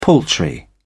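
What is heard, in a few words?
"paltry", British English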